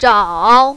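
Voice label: "zhao" third tone, mandarin, female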